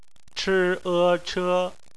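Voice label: "che", bopomofo, chinese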